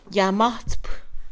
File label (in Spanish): atrapar, Mixe, Mujer; INALI